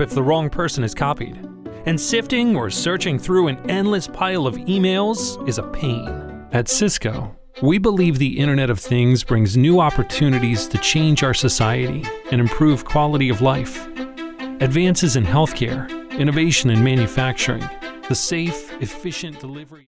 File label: explainer, explainer video voice over